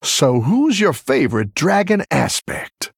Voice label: Male Human Flavor